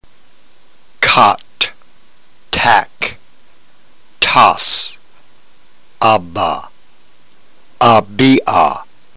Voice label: drill, ntgreek

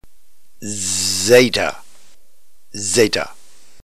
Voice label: "zeta", Greek alphabet

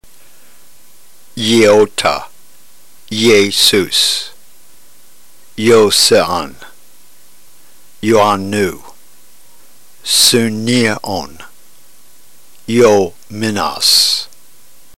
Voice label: drill, ntgreek

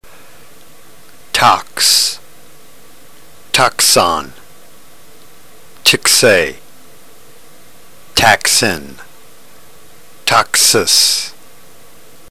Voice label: drill, ntgreek

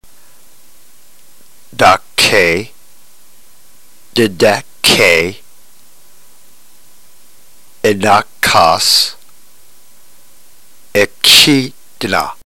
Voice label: drill, ntgreek

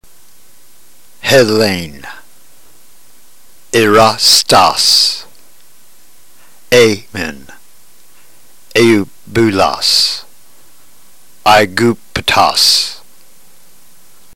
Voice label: drill, ntgreek

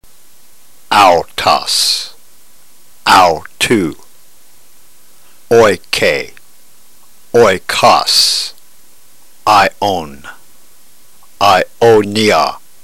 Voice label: drill, ntgreek

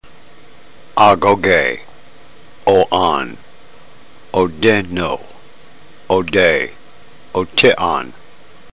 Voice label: drill, ntgreek

